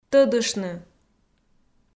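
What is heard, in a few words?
"тӧдыштны", Komi, female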